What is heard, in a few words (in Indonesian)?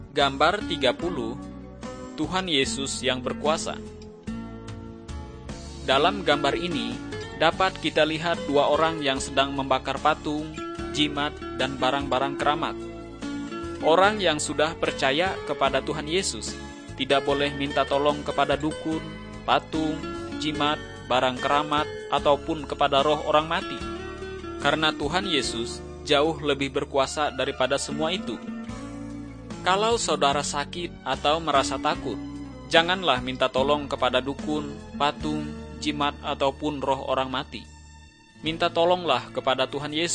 kabar baik good news bahasa indonesia